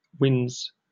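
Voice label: "wins", British English; pronunciations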